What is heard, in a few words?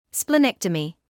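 "splenectomy", British English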